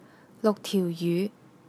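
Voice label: "tiu4 yu2", Cantonese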